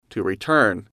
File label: "to return", English